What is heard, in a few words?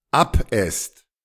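"abesst", German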